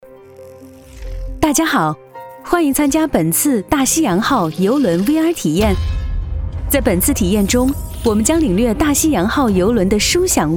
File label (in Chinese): 女 VR体验 代入感